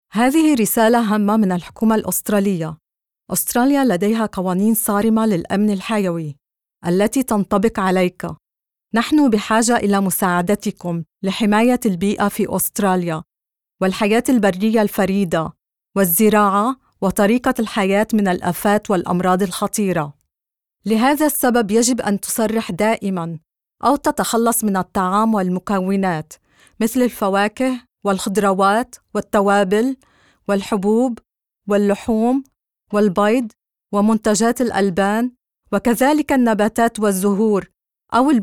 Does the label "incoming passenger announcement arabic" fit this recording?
Yes